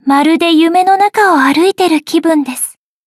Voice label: upgrade, ja; Cookie Run

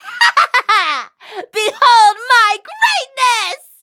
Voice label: levelup, en; Cookie Run

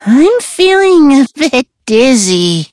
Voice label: loading, en

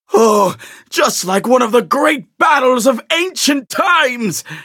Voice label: battle, en; cookie run

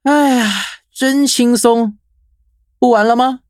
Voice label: victory, zh-hant; cookie run

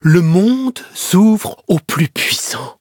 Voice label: levelup, fr; Cookie Run

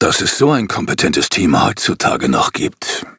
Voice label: victory, de; Cookie Run

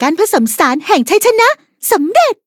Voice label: victory, th; cookie run

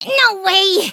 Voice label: hit, en; Cookie Run

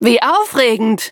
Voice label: level up, de

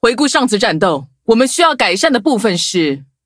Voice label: touch, zh-hant; cookie run

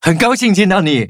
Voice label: touch, zh-hant; Cookie Run

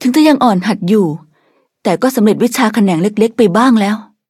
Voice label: level up, th; cookierun

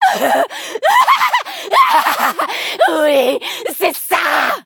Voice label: levelup, fr; cookie run

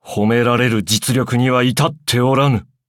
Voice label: upgrade, ja; Cookie Run

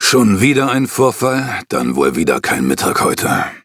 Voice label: loading, de; cookie run

